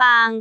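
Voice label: "baang3", Cantonese; googleA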